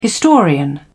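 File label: "historian", British English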